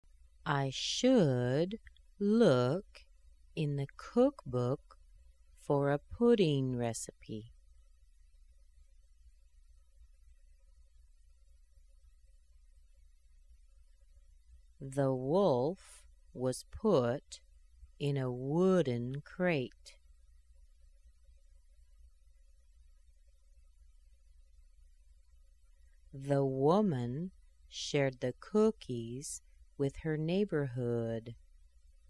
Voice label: u sentences slow, American accent